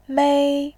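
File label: "mei", Mandarin, tone 1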